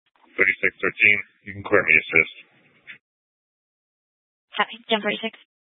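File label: Police Dispatch, Scanner audio; Police 1 Dispatch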